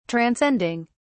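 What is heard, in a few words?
"transcending", British English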